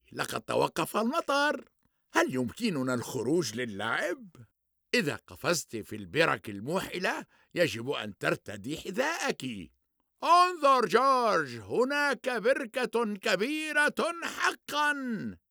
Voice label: Cartoon